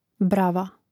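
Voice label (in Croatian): "brava", Hrvatski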